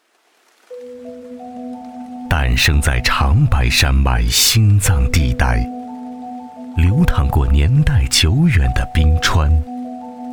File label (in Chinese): 男 专题 厚重 意境